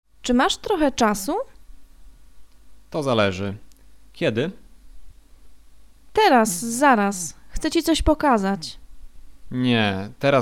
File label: conversation; lektorek; firstyear